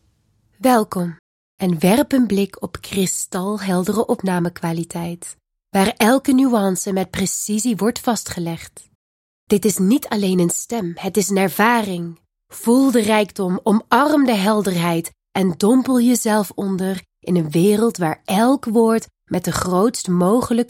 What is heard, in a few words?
Dutch F Narration